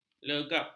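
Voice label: "legap", Malay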